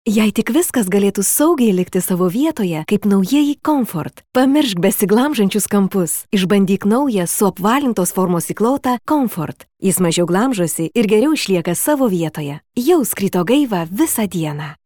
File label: Lithuanian, F, Explainer